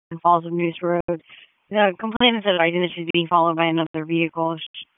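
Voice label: Police Northeast District Dispatch, p25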